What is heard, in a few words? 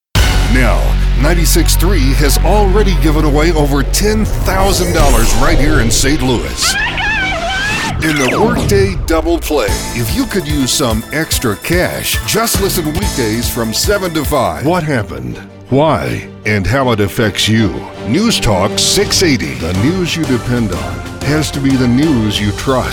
promos